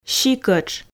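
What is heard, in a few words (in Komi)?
"сикӧтш", Komi, female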